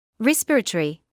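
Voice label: "respiratory", British English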